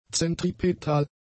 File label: "zentripetal", German; adjectives